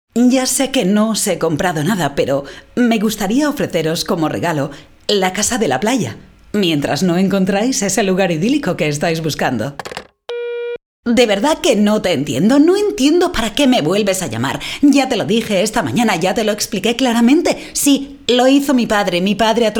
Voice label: female, Spanish Castilian character compilation